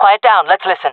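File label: request quiet, female voice; player vo jungle fem; Counter Strike Model Pack; CS:GO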